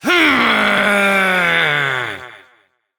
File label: Frustration; Evil Twin A; Warframe; Entrati Doppelganger; Battle Barks